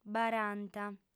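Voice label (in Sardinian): "baranta", female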